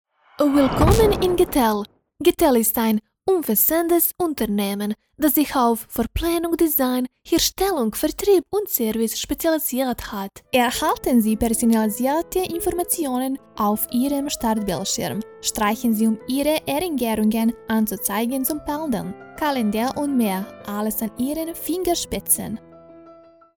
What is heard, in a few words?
German